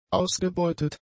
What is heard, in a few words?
"ausgebeutet", German; adjectives